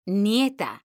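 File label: "nieta", Spanish, female; mekanta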